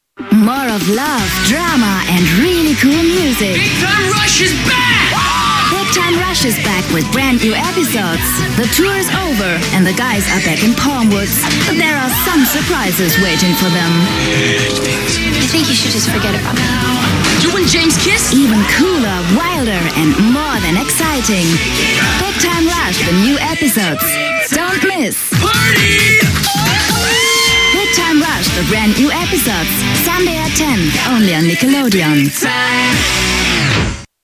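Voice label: english; big time rush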